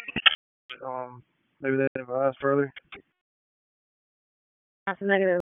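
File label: Public Safety Tactical, p25; wake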